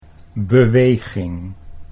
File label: "beweging", Dutch